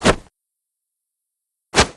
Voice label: aggressive